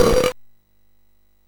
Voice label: Bouncy Balls speech, MOS 6581